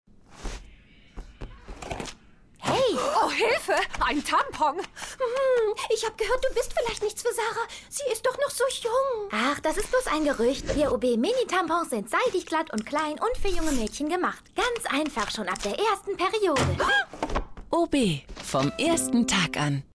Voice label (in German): Werbung